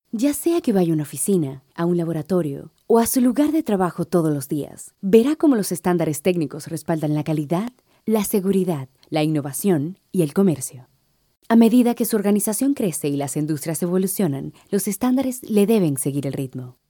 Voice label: Demo corporativo; German (Germany)

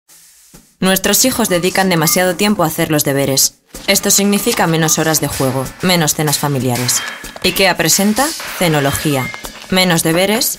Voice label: Anuncio; Salvemos las cenas; IKEA

